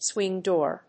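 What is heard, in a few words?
"swing door", English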